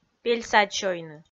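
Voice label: "пель садь сёйны", Komi, female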